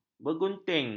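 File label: "bergunting", Malay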